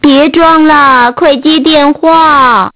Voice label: Woman Voice; Innostream INNO 55